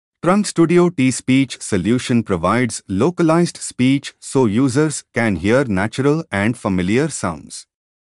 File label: English India